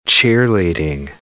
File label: "cheerleading", English